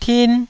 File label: "tin1", taiwanese Syllables